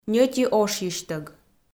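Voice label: "ньӧти ошйысьтӧг", Komi, female; audio dict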